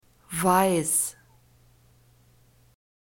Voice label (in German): "weiss", German